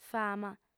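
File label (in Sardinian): "fama", female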